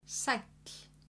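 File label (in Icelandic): "sæll", Icelandic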